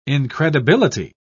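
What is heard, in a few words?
"incredibility", English, British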